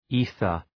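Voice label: "ether", English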